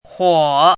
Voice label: "huo3", 汉字语音; chinese-voice

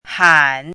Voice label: "han3", 汉字语音; chinese-voice

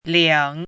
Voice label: "ling3", 汉字语音

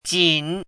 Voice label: "jin3", 汉字语音; chinese-voice

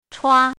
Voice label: "chua1", 汉字语音; chinese-voice

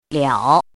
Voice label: "liao3", 汉字语音; chinese-voice

